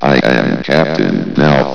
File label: chat